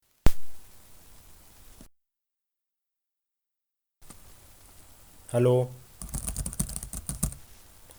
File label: headset audio t510